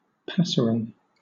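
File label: "passerine", British English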